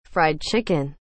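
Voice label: "fried chicken", English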